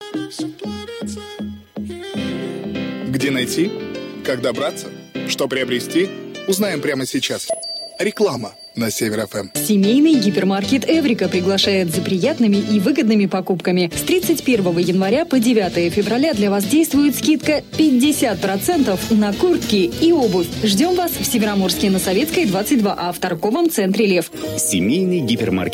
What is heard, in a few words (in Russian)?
Рекламный Блок; Север FM Североморск 875 FM